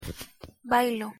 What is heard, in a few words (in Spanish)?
"bailo", pronunciation es